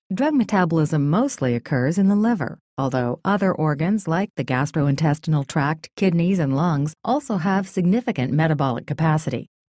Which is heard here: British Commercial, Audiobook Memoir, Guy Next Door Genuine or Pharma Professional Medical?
Pharma Professional Medical